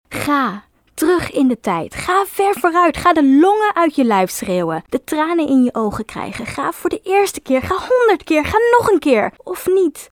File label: female dutch commercial